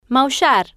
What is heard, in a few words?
"maullar", spanish